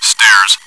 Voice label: stairs, bot; csgo